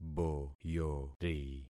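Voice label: "boyori", concatenated syllables; Affixing experiment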